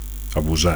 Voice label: "abusar", Catalan